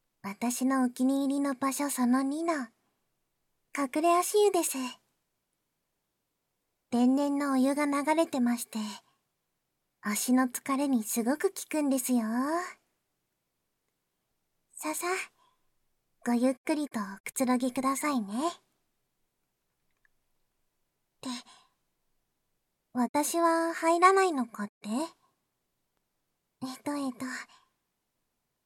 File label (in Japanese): ゆったり二人で足湯（バイノーラルマイク編集 効果音なし）, ASMR; バイノーラルマイク収録 効果音なし